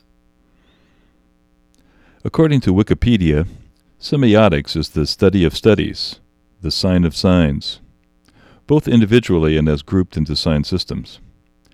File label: Narration RAW mono; Semiotics